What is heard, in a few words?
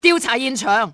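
investigate area, cantonese female; XBOX; enemy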